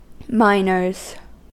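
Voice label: "miners", US English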